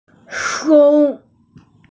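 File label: "sou1", Mandarin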